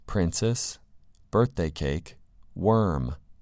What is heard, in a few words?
"princess" "birthday cake" "worm"